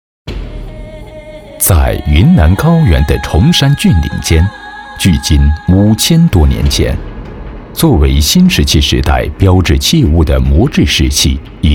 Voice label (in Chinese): 纪录片 沉稳; 云南文明